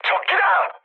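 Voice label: Korean enemy detected mood high; speech; War Thunder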